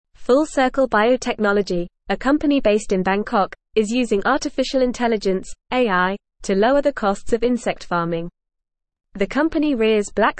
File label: English Advanced FAST Reading; Insect Farms Turn to AI to Lower Costs